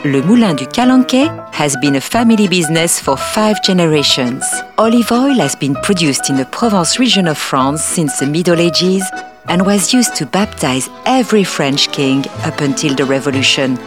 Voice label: Accented English ad

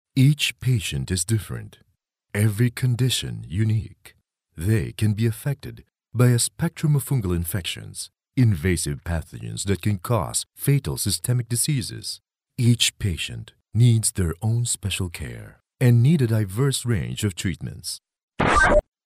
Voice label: Corporate VO

